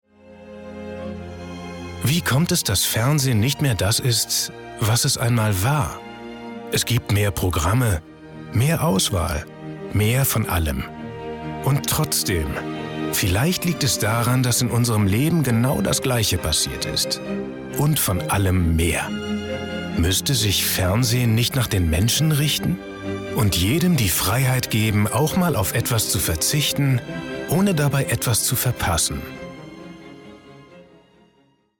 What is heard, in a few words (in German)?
Sprecherdemo TV; LOFT VOICES